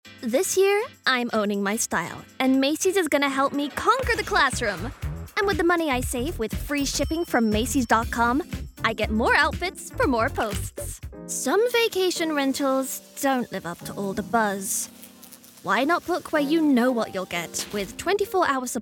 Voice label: Commercial